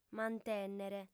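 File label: "mantènnere", female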